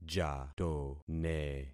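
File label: "jatone", concatenated syllables; Affixing experiment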